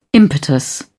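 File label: "impetus", English, British English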